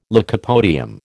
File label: "lycopodium", American